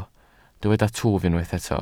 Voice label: "twf", Welsh